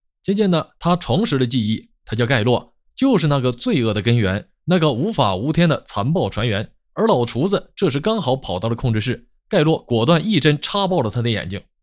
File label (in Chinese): 电影解说